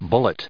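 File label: "bullet", English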